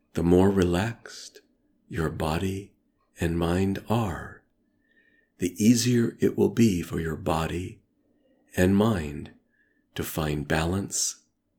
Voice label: Mindfulness Meditation; The Dieting Mindfulness Meditation